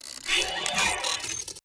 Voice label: robot mammalian greetings; Stellaris; synthetic dawn

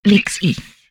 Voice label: humanoid female; Stellaris

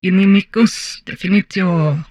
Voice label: humanoid female; Stellaris; humanoids

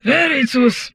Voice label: humanoid portrait female; Stellaris; humanoids

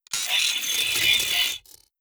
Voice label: robot arthopoid greetings; Stellaris; synthetic dawn

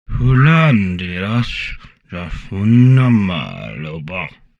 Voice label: humanoid portrait male; Stellaris; humanoids

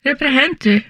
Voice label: humanoid female; Stellaris

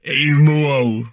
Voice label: humanoid portrait male; Stellaris; humanoids

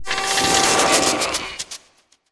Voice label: portrait synthetic; Stellaris; machineage

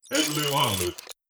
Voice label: robot human male greetings; Stellaris; synthetic dawn

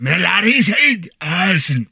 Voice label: humanoid portrait male; Stellaris; humanoids

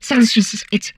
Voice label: humanoid female; Stellaris; humanoids

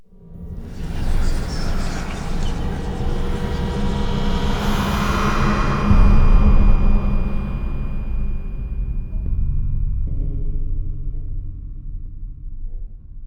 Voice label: archeology event whispering; ancient relics

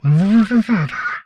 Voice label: humanoid portrait male; Stellaris; humanoids

